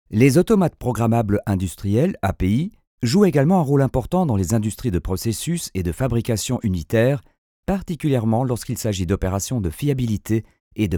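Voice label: French Belgian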